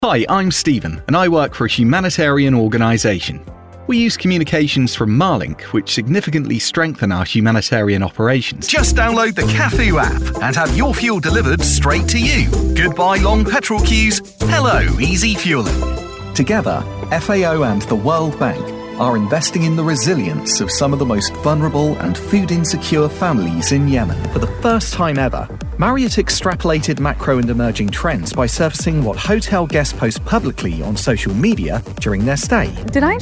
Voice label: english british cartoon animation

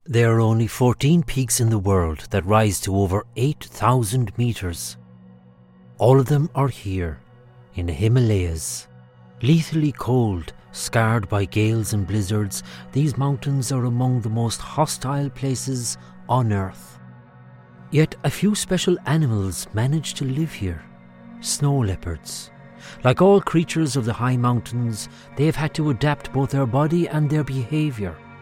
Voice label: english irish documentaries

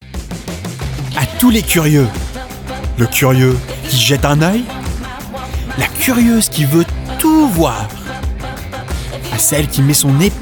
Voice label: french promos

